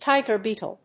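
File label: "tiger beetle", English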